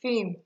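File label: "film", Nigerian Pidgin, female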